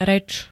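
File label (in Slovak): "rec", Slovenské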